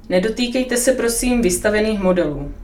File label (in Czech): nedotykejte se prosím vystavených modelů, stanicni hlaseni